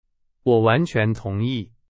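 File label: Chinese, example sentence; hsk2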